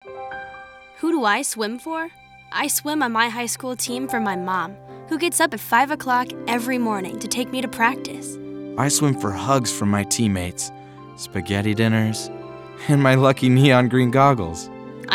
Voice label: audio spot